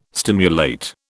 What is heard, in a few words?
"stimulate", British